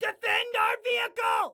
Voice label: Defend Vehicle, Excited Guy